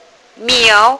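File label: "miao2", Mandarin Chinese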